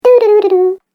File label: Cartoon Game Voice "Doodoodedoo"; Twistedtracks